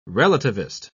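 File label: "relativist", British English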